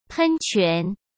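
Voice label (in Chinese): "喷泉", Mandarin Chinese; hsk; chinese-flashcards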